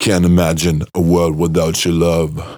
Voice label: Phrase Pitched; Vengeance Samples

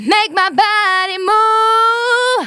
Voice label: Phrase Female; Vengeance Samples; Phrases

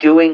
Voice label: LoFi Words, LoFi Vocals

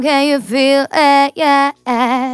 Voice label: phrase female

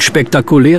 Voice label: LoFi Words, LoFi Vocals; Vengeance Samples; VVE1 LoFi Vocals

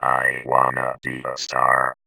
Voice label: Vocoder Phrases; Vengeance Samples

